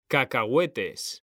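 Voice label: "cacahuetes", Spanish, male; mekanta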